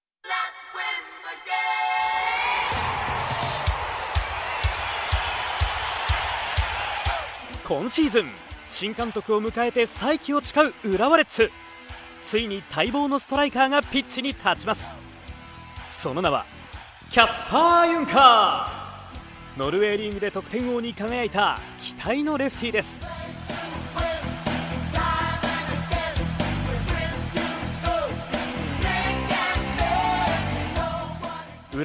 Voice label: japanese commercial; Japão

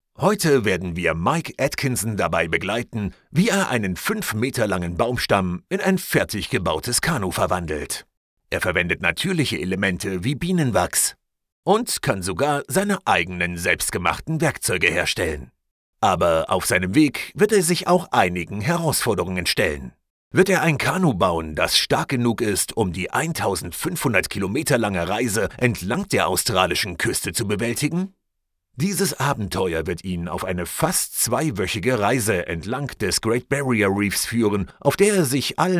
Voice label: german documentaries

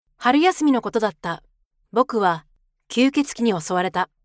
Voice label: japanese

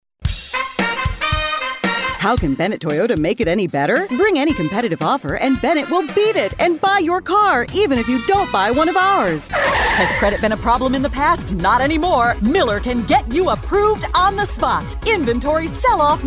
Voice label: english american automotive

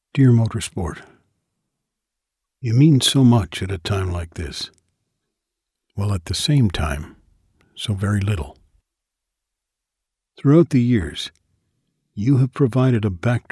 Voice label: English Canadian audiobooks

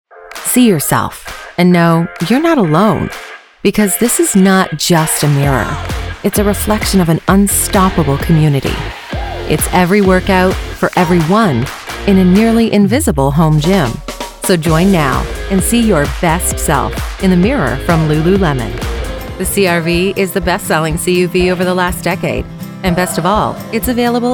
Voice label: English Canadian commercial

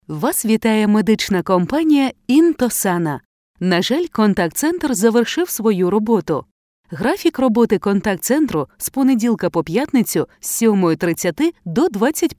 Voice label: ukrainian ivr

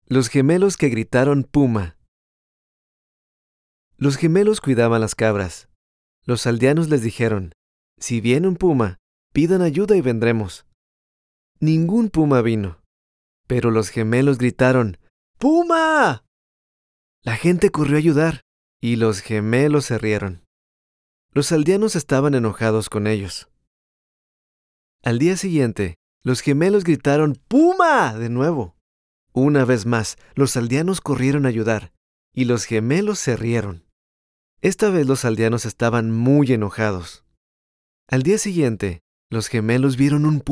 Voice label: spanish latin american audiobooks

